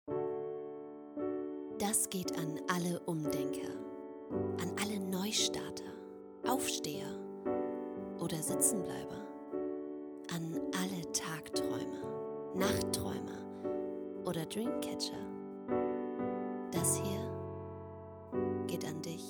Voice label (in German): Werbung Bekleidung